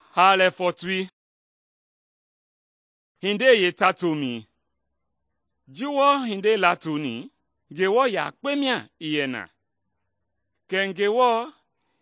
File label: mende bsl nt drama; good news mende kpa